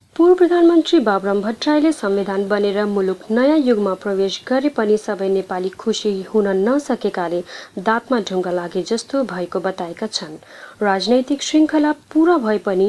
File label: nepali